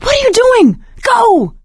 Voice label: emphatic go, survivor voice; Left 4 Dead 2; producer